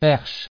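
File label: "perche", French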